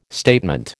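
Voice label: "statement", American English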